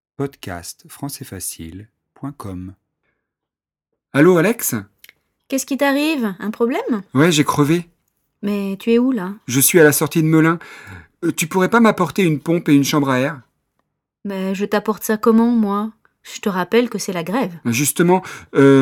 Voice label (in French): dialogue grece marchandage